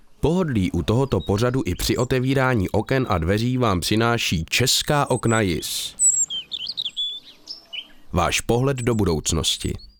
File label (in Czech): Reklama na okna; JIS